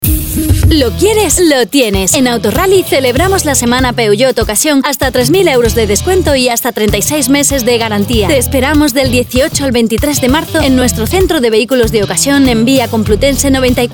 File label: spanish promos; actriz de doblaje española